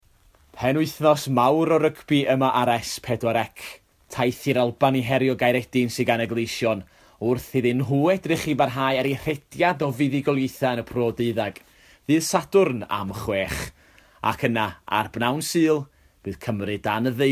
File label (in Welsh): Rygbi Welsh; S4C